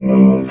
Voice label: "move", robot